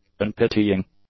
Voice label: "unpitying", english; adjectives